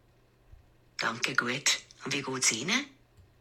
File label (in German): "danke guaat", Basel, female